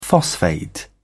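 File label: "phosphate", British English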